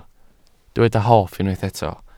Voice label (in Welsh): "hoff", Welsh